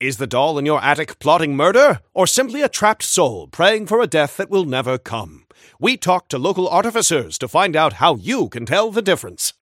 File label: Newscaster headline